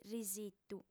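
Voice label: "risitu", female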